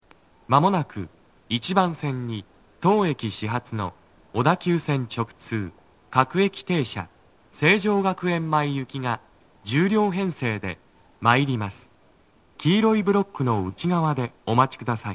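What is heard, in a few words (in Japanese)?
北綾瀬 1番線 接近放送, 男声, 各駅停車 成城学園前行 (10両編成), 待合室TOAでの収録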